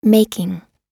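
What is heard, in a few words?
"making", American English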